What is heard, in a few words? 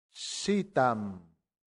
"sitam", Latin; santillana